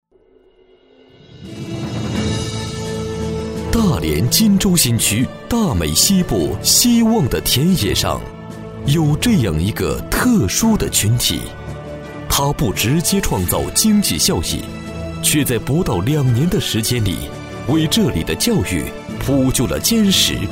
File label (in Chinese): 男97-专题-浑厚大气